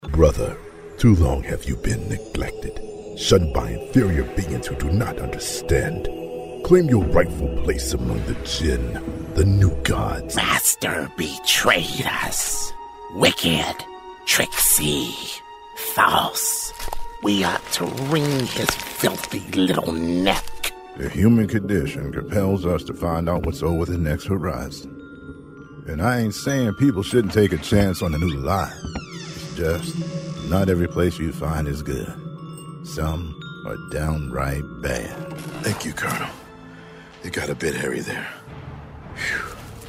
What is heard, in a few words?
Animation